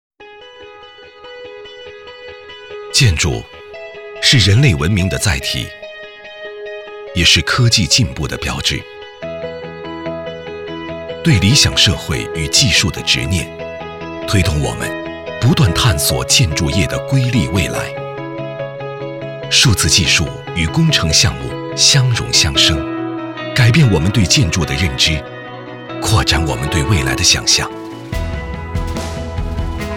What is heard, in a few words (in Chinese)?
宣传片科技未来感宣传片数字建筑